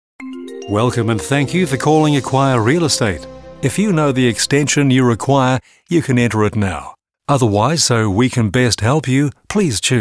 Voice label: On Hold Messages and IVR